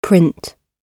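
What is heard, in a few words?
"print", British English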